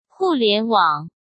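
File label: "互联网", Mandarin Chinese; chinese-flashcards; hsk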